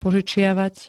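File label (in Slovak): "požičiavať", Slovak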